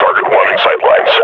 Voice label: announce attack, combine grunt; alyx